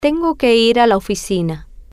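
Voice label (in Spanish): Tengo Que Ir La Oficina, spanish, female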